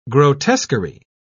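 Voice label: "grotesquery", British English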